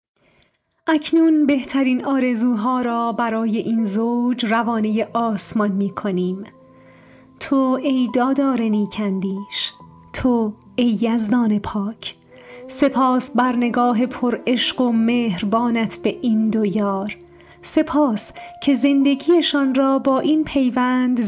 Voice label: aghd farsi voice sample